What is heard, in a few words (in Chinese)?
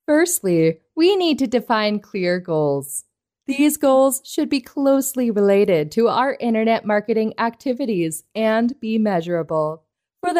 美式女声